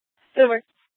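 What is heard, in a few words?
police dispatch, Scottsdale PD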